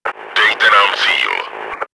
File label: combat reserve, cz; Dejte nám cíl!; Czech; Дайте нам цель!; World of Tanks